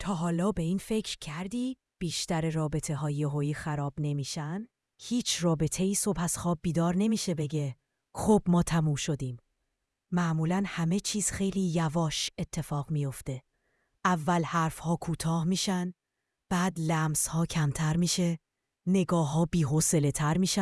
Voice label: coral patient teacher; openai-fm coral